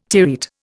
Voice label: "terete", Indian